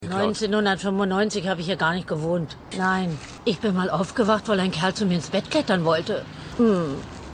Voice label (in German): Alte Bewohnerin, Stimmproben; Shameless